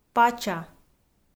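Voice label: "пача", Komi, female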